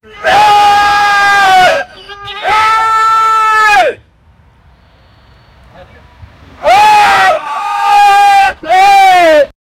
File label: a guy screaming; shout